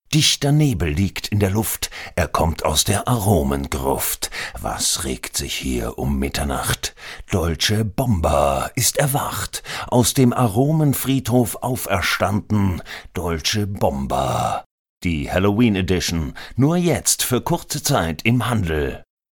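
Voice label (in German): Werbung, advert; Dolce Bomba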